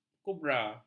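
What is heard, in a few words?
"kubra", Malay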